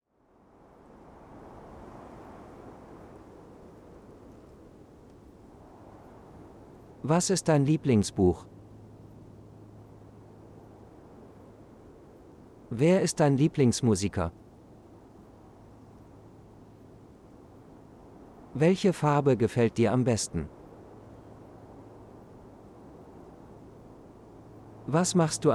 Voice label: Relax Wind Phrases, de-en